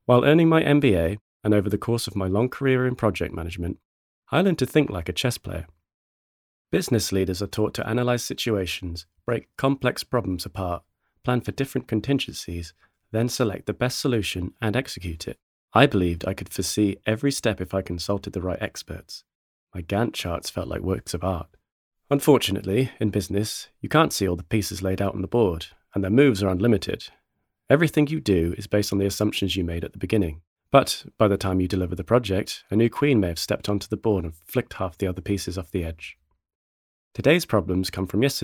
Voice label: Adult non fiction